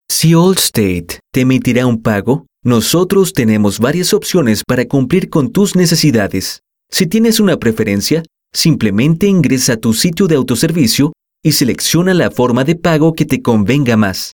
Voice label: Latin Spanish Chile; AllState